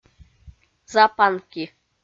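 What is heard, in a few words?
"запанки", Komi, female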